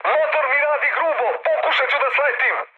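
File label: Serbian damaged engine mood high, speech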